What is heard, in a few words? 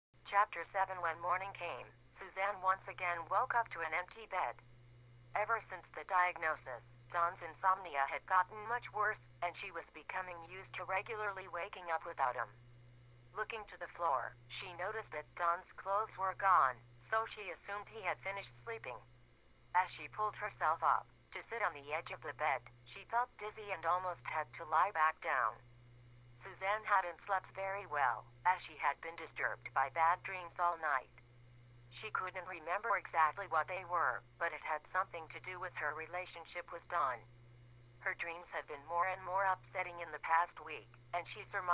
amazon female